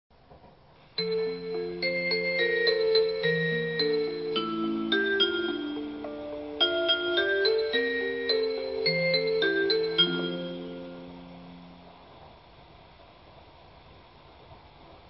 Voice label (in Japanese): 新幹線車内放送; tokai; 新幹線 のぞみ１３７号 新大阪行きのぞみ１３７号。2006年8月; 東京発車後